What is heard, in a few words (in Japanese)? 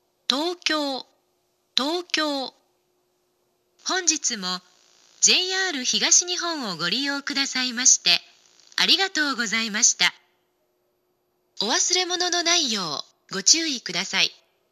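終着放送, 東京駅; ２番線終着放送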